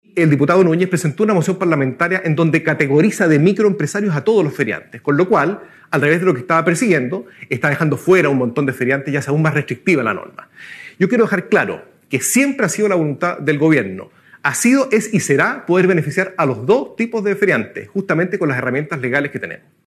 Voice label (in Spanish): cuña